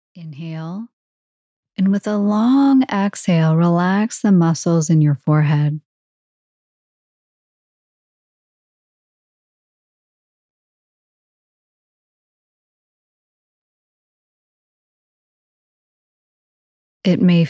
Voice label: Full Body Relaxation; BODY-SCAN; Oura; Sleep